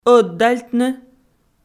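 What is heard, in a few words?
"ӧдальтны", Komi, female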